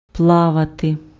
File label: "plavati", Ukrainian